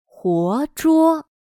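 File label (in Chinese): "活捉", Chinese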